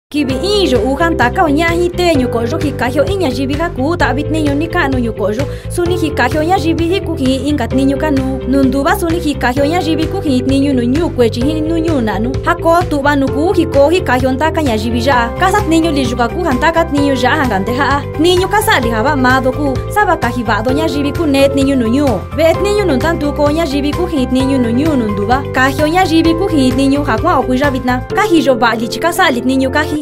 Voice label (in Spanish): Qué Bien Se Siente Cumplir Mixteco